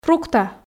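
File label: "фрукта", Komi, female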